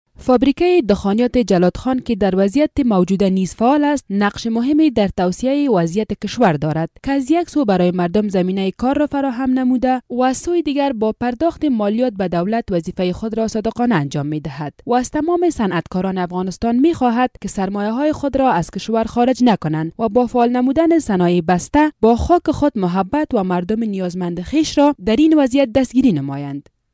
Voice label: Dari F

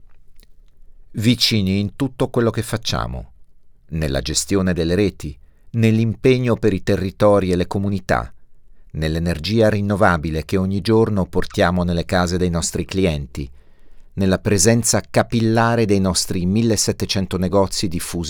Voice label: Italian, M, AD

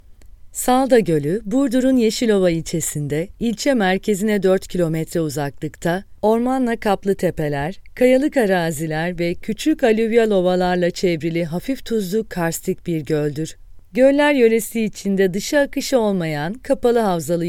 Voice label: Turkish F Narration; voice-over

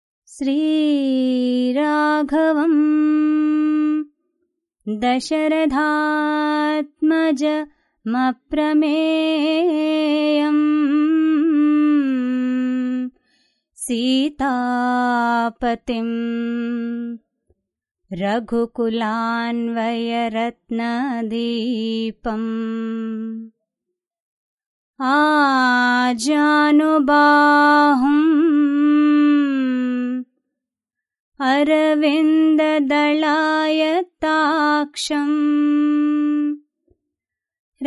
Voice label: Sanskrit F

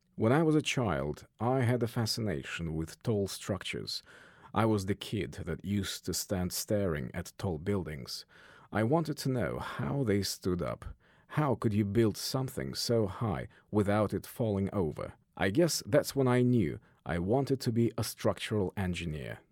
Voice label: Russian English M Explainer, accent of russia

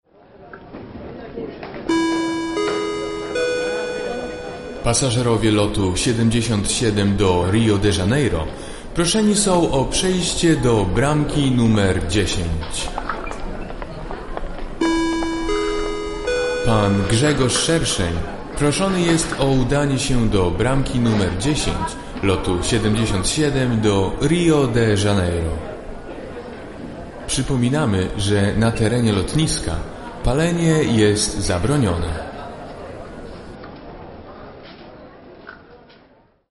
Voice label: Polish M Announcement

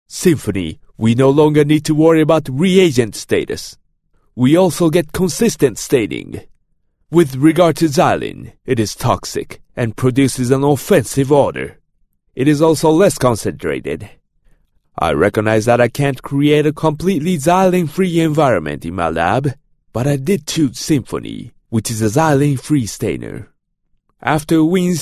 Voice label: Japanese English M Narration; voice over; accent of japan

